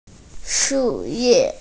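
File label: "shu4ye4", Mandarin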